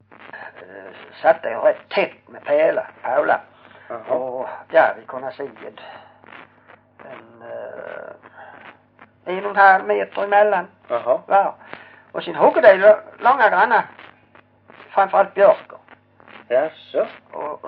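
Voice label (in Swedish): Hörja Skåne, dialekter; Folkminnen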